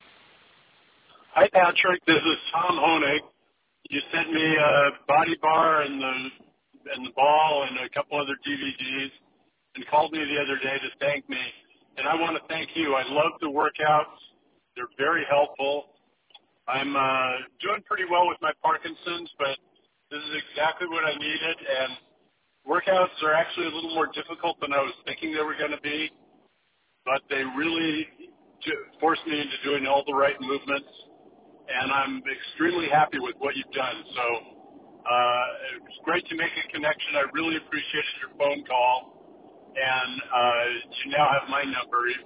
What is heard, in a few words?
voicemail